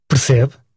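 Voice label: do you understand, portuguese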